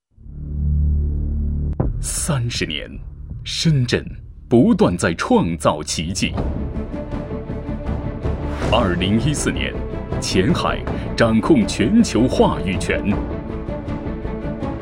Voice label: 宣传片 地产, 男国; 前海

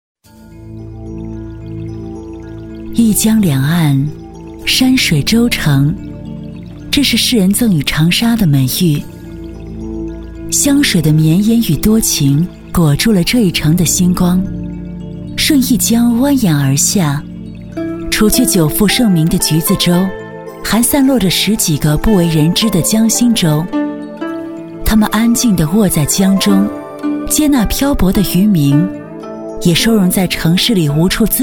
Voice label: 女 纪录片 人文历史 航拍; 长沙; 长沙之15洲

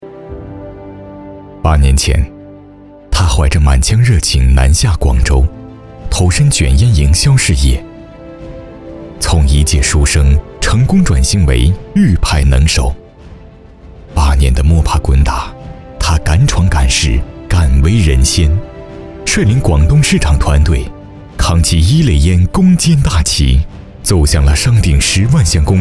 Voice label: 专题 人物 人物事迹, 男

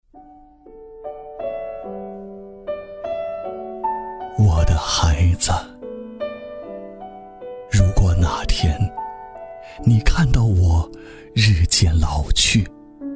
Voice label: 微电影 内心独白写给儿子的信 老人, 男国

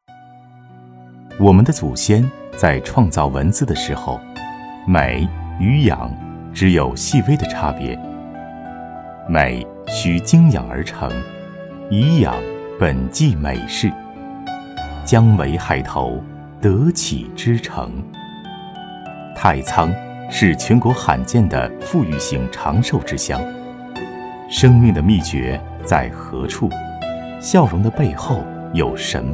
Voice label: 男国 专题 政府 讲述; 太仓

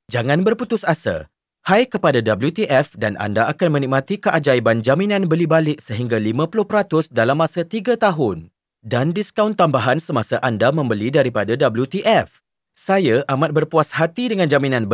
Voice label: 马来西亚语男 外语 小语种

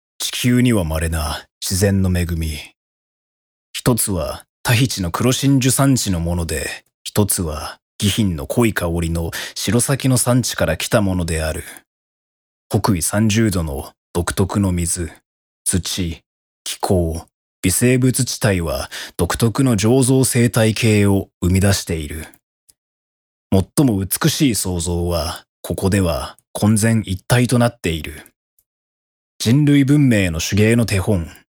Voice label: 日语男 外语 干声

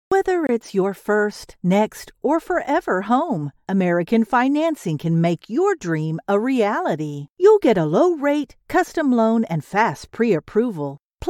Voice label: 外籍女英 外语 美式英语 旁白 讲述

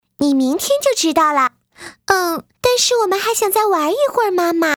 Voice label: 女 动画 动画片 小兔; 小兔瑞贝卡

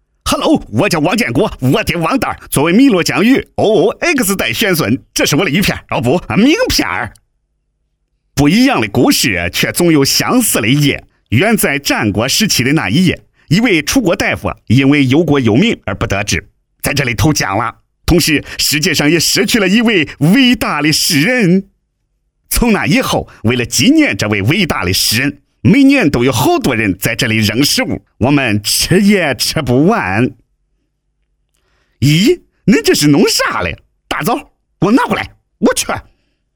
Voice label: 广告 促销 河南方言版, 男国

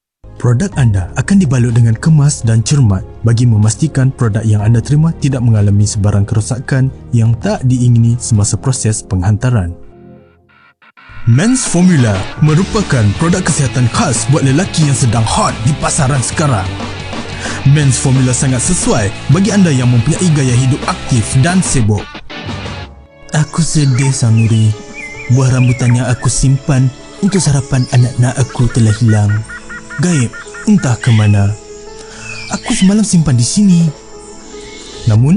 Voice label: 马来西亚语男 外语 小语种 浑厚

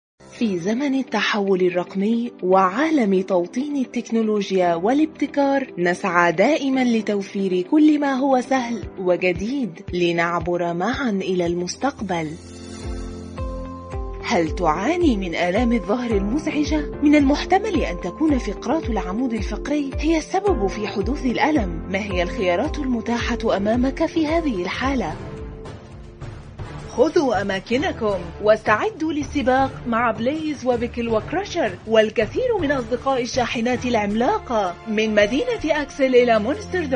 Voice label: 阿拉伯语女 外语 小语种

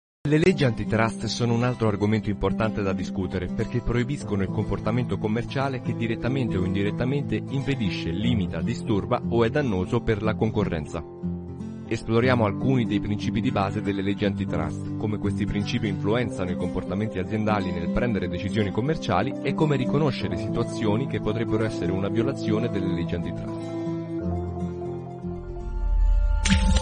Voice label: 意大利语男 外语 小语种

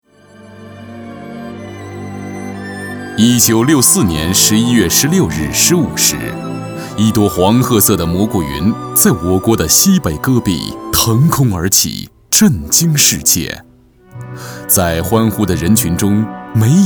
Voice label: 专题 人物 怀旧类人物, 男; 朱光亚

